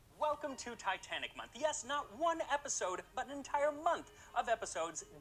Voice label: Normal Conversation